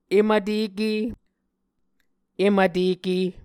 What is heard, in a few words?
"em vteke", muscogee